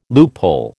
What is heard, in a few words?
"loophole", American